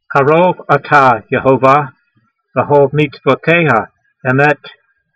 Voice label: voice, hebrew language; Psalm 119:151; karov atah yehovah, ve'hol-meetsvoteyha e'met